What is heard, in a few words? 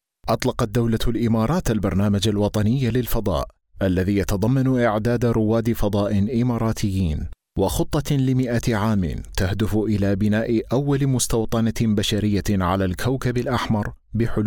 male arabic voice over character